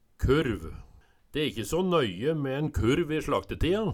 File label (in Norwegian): kurv, Numedalsmål